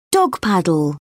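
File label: "dog paddle", British English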